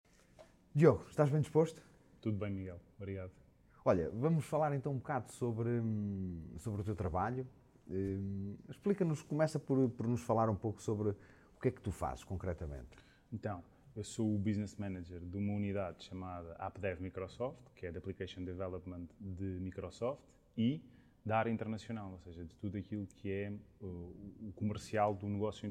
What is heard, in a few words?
Podcast; Glintt